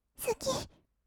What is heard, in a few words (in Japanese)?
"すきっ", kawaii voice; ASMR; 色々なワンショット